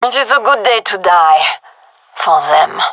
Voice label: round start, gendarmerie fem; vo

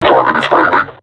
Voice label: taunt, vo combine suppressor; Half Life Alyx

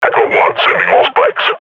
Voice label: firing, combine grunt

